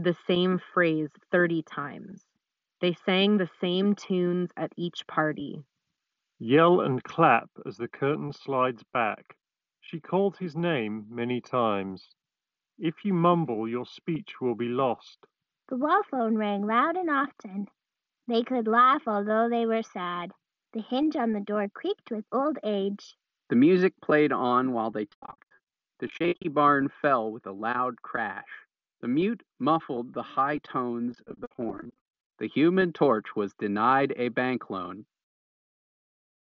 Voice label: Beats Fit Pro Ideal microphone sample